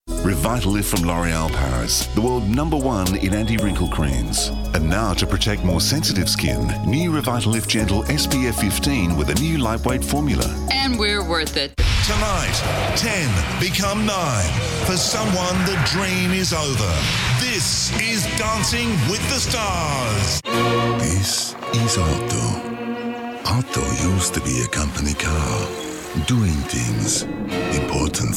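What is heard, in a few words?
English Australian, male, commercial; voice demos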